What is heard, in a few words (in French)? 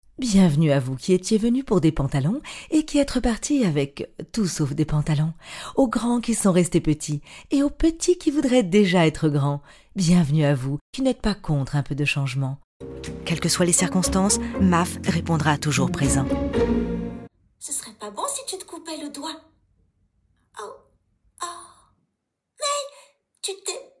DEMO VOIX; voix-off